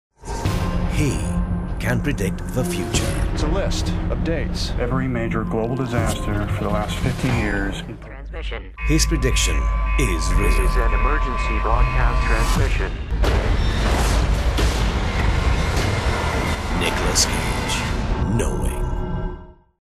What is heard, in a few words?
malay movie trailers